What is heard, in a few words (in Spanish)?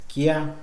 nada, Mixe, hombre; INALI